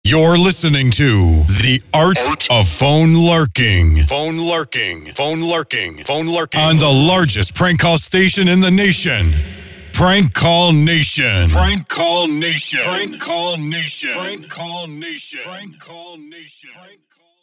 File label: dry read; Phone Losers of America Media Pack 2014; Live Show Sounds; Drops; PCN Art of Phone Larking